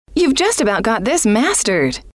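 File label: you've just about got this mastered, female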